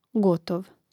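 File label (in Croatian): "gotov", hrvatski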